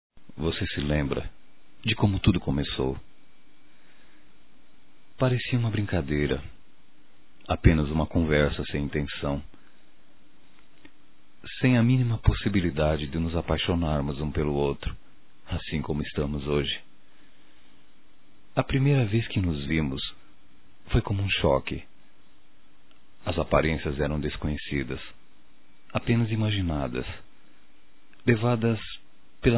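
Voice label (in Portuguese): voz Namoro por Telefone deu Certo, masculino; Telemensagens; Só Voz; Telemensagens 2017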